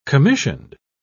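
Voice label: "commissioned", English, British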